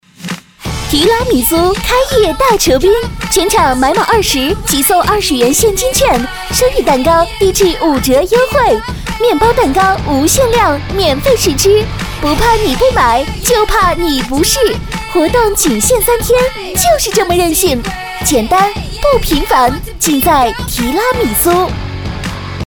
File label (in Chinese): 女 广告 激情促销, 国语女声配音; 提拉米苏